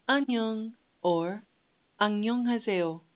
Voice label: Korean, hello

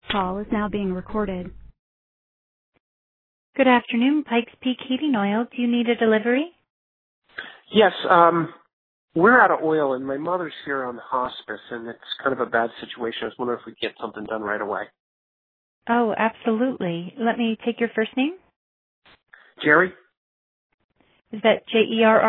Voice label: emergency dispatch; answering services; emergencies

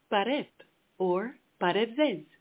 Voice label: Armenian, hello

